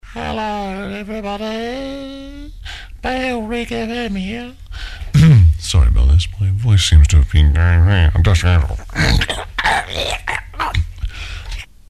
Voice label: male, no bed; hello everybody - bfm 'ere; bailrigg fm; bfm jingles ads RSL 1994